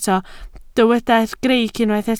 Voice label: "greic", Welsh